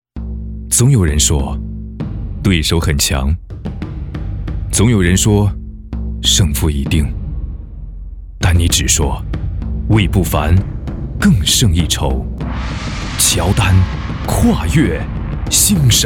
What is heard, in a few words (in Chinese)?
男国 广告 品牌形象 浑厚; 乔丹